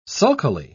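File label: "sulkily", British English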